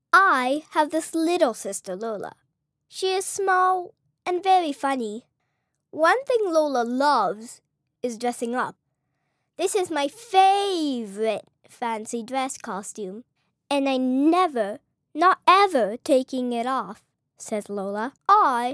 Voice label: Narration

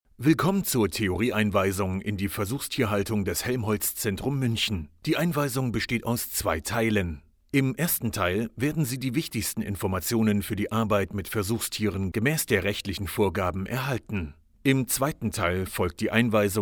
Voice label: Tutorial; Helmholtz Zentrum

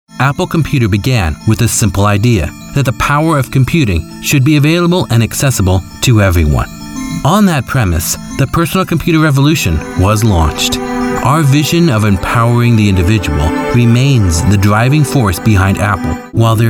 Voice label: Corporate Narration

